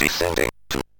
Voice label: MOS6581R3, Electronic Speech Systems